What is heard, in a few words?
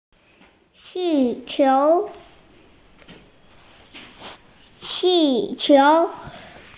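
"qi4qiu2", Mandarin Chinese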